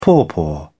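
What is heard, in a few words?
"pawpaw", British English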